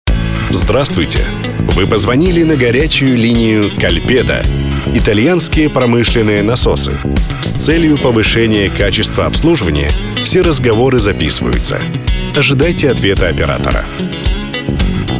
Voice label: IVR